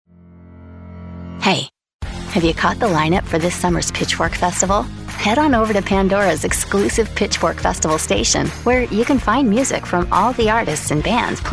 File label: english american radio imaging; Estados Unidos; Inglés (Estados Unidos)